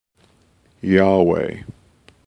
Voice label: Yahweh, male voice